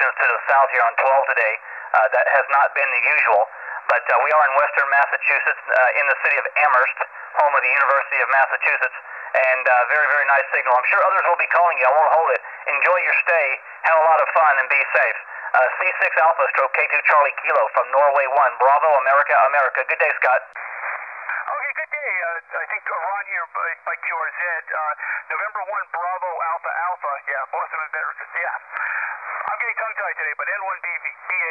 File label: 12M SSB 20 OVER S9